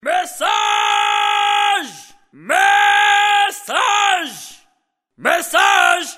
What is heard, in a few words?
mesaaaaaaaaj, Message Tones